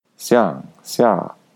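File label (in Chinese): "xiang xia", Mandarin Chinese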